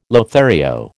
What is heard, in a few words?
"Lothario", American